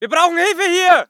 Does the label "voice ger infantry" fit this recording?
Yes